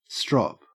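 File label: "strop", Australian English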